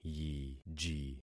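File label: "yiji", concatenated syllables; Affixing experiment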